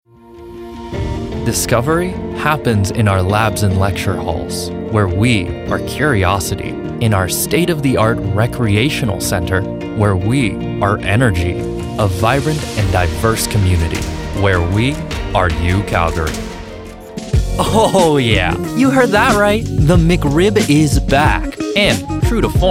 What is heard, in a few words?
Commercial